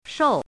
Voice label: "shou4", pinyin